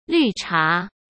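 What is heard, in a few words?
"绿茶", Mandarin Chinese; chinese flashcards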